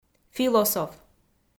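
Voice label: "философ", Komi, female; kpv audio dict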